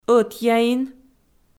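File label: "ӧтъяин", Komi, female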